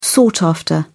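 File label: "sought after", British English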